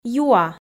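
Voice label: "юа", Komi, female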